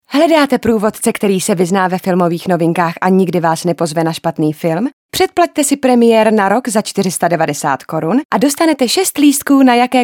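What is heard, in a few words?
female Czech commercial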